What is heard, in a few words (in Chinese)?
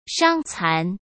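"伤残", Mandarin Chinese; hsk; chinese-flashcards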